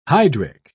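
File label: "hydric", British English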